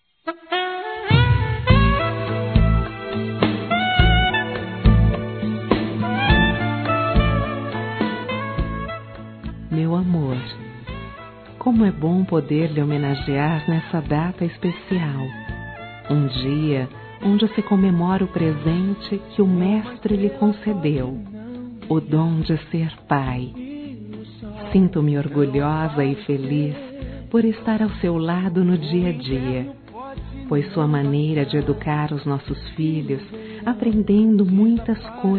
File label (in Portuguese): DIA PAIS ROMANTICO F; Telemensagens 2017